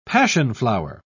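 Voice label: "passionflower", British English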